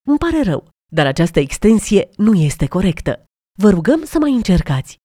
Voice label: romanian PBX Invalid